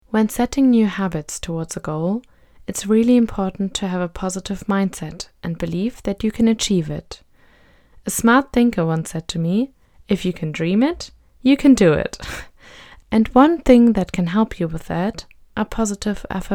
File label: positive affirmation